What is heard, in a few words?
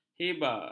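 "hiba", Malay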